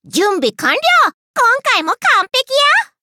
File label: levelup ja; cookie run